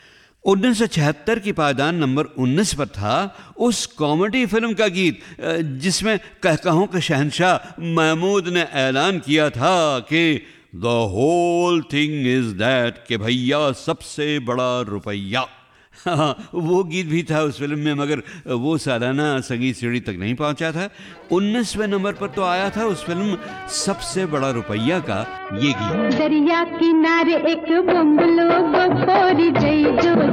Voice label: commentary